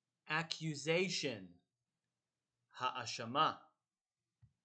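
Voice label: "accusation" English, "האשמה" Hebrew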